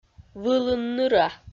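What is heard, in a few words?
"вылын ныра", Komi, female; audio dict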